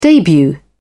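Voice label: "debut", English, British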